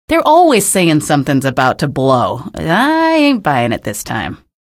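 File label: citizen remarks, female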